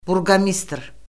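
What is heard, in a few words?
"burqomistr", Azerbaijani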